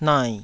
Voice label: "nai3", Taiwanese